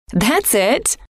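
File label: thats It, female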